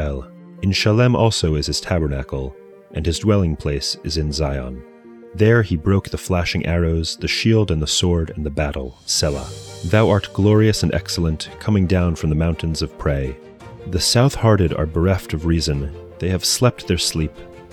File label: poetry and prayer psalm english; Meir Soloveichik